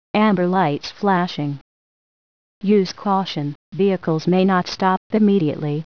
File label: Amber Crosswalk Message; accessible pedestrian signals